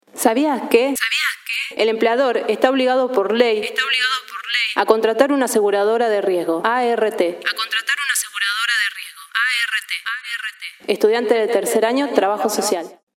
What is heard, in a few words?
spot; derechos del trabajador